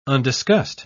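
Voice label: "undiscussed", British English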